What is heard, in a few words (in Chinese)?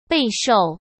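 "备受", Mandarin Chinese; hsk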